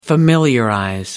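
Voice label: "familiarize", English, British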